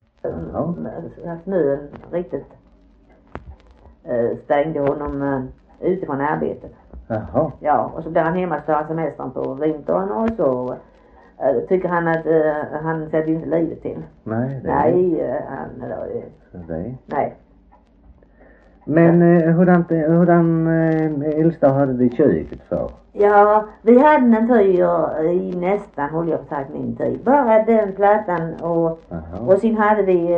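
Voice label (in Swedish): Harplinge Halland, dialekter; Folkminnen; Svenska sagor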